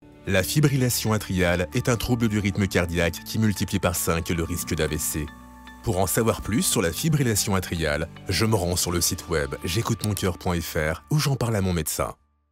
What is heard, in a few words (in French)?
Pub TV Web Radio FR; Bristol-Myers-Squibb; Pfizer